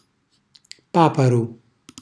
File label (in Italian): "paparu", Calabrese; cucina calabrese; Glossario